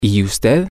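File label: how about you, spanish, male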